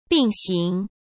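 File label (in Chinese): "并行", Mandarin; chinese-flashcards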